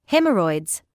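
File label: "haemorrhoids", British English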